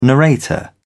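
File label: "narrator", British English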